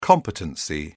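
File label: "competency", British English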